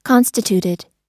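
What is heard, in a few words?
"constituted", US English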